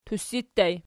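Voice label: "tussi" ergative plural, Archi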